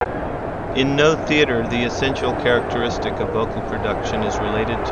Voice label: speech noise